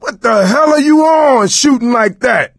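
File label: team kill accident, voice, male; Left 4 Dead 2; coach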